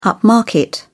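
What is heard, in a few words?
"upmarket", British English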